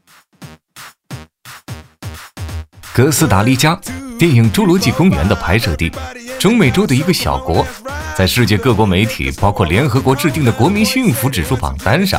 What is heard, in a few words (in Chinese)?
男100号-栏目-哥斯达黎加音乐 轻松 年轻, 国语男声配音